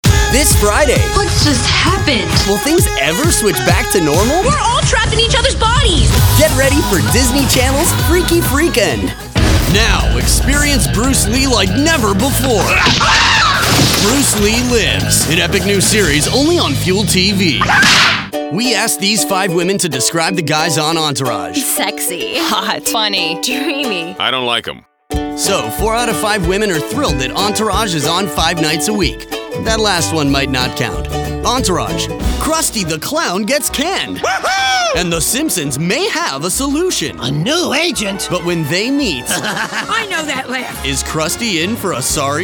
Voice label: Male